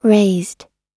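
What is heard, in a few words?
"raised", American English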